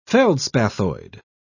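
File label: "feldspathoid", British English, dictionary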